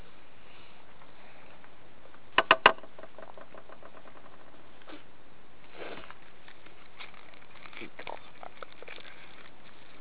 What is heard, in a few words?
young male voice